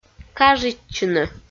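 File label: "кажитчыны" Komi, female; kpv audio dict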